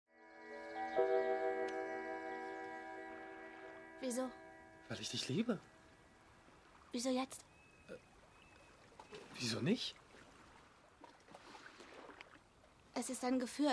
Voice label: synchron duo verletzt emotional schreiend; claire; casting stimmprobe

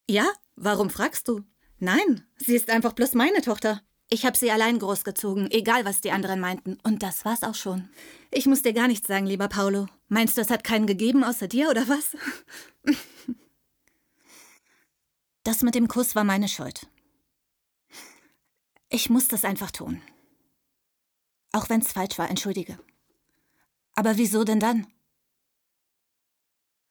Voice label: realfilm frau aktiv situativ; casting stimmprobe

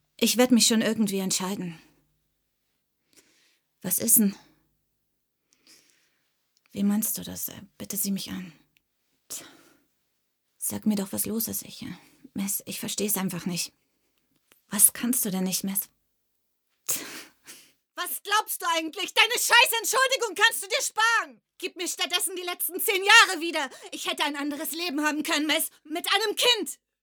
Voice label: arthouse frau betroffen wuetend; casting stimmprobe

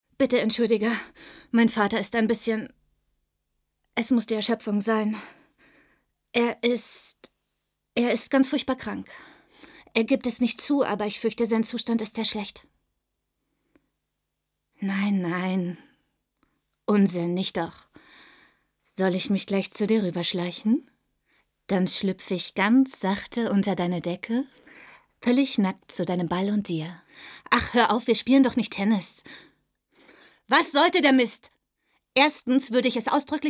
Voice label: synchron einzel situativ luegend wuetend; chloe; casting stimmprobe

